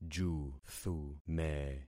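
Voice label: "juthume", concatenated syllables; Affixing experiment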